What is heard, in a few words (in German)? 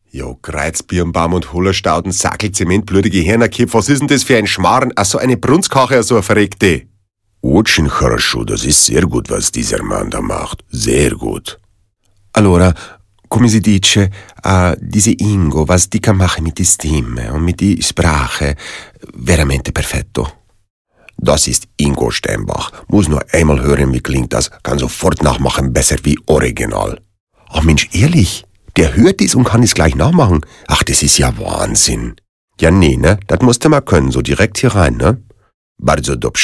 Dialekte INT